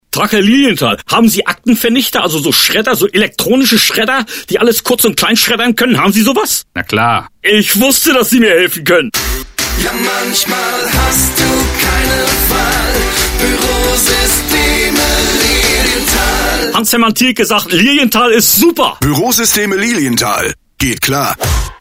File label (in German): Spot